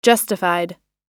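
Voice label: "justified", US English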